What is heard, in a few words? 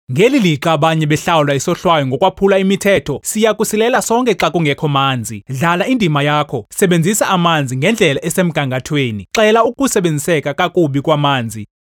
Xhosa Star Sample